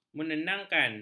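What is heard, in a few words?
"menenangkan", Malay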